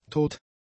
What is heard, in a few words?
"tot", German; adjectives